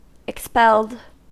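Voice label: "expelled", American English; pronunciations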